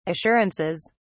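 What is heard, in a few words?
"assurances", British English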